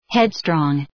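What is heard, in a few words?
"headstrong", English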